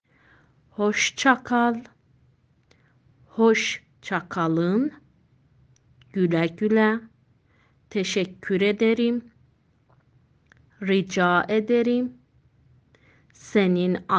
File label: turkish